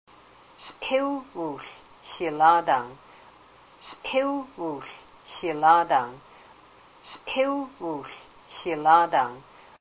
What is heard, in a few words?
I am drying black seaweed, Haida language; Sgíw uu hl xiláadaang; I am drying some seaweed; recorded on Wednesday, March, 2003 in Ketchikan